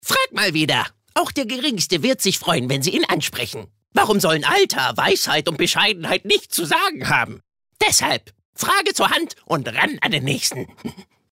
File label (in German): Charge Comic